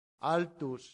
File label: "altus", Latin; santillana